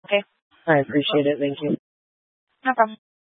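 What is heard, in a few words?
Incident Tac 1, Scanner audio recording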